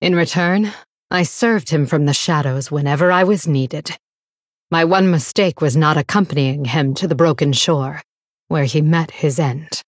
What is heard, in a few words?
Female Blood Elf; Valeera